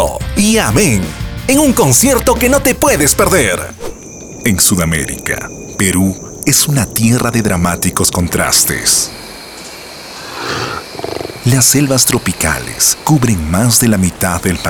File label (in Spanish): Locutor